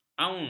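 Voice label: "auns", Malay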